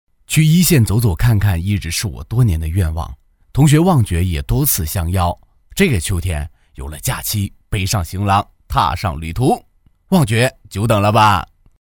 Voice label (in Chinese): 男 自述 素人; 大气厚重; 素人自然